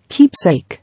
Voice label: "Keepsake", English